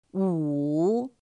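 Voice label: "ǔ", chino mandarin; pinyin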